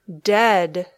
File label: "dead", English